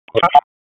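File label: Raleigh Police North District Tactical; wake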